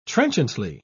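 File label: "trenchantly", British English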